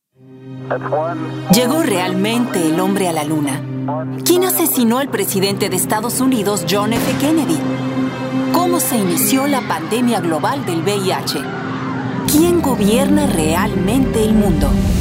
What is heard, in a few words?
Spanish Dramatic